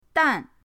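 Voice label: "dan", Mandarin pinyin, fourth tone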